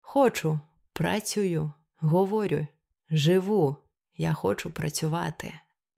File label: Verb Stress, ukrainian pronunciation